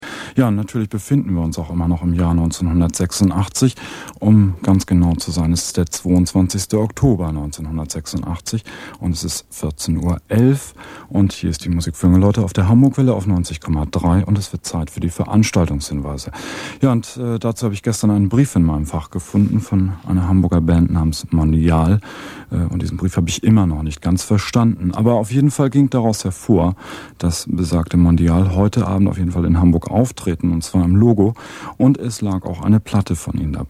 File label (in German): radioansage istanbul; Mondial